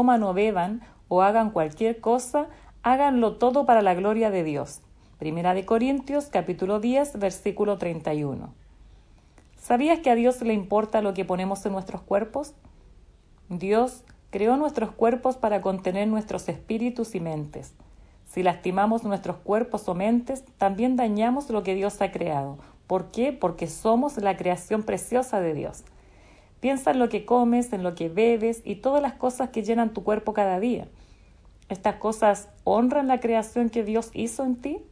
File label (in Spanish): devocional